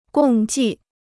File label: "共计", Chinese